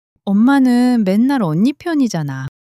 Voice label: sulk, monologue